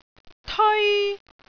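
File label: "teoi1", Cantonese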